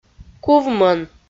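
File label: "кувмӧн", Komi, female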